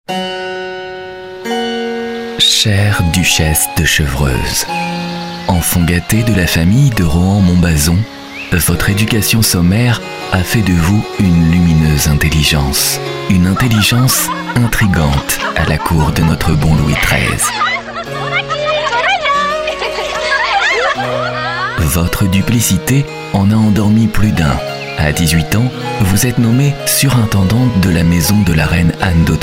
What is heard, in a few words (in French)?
voix off homme documentaire; Histoire